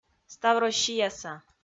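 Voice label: "ставроссияса", Komi, female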